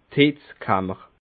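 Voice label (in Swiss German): "heitzkammer", Alsatian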